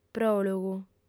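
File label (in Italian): "pròlogu", lingua sarda, fem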